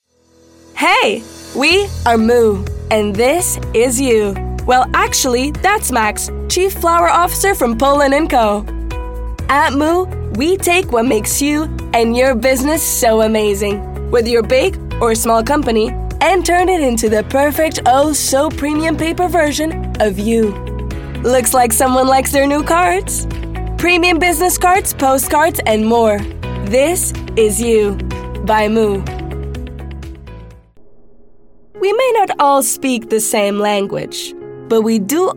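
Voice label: European Portuguese, Commercial, Acc EN; GoLocalise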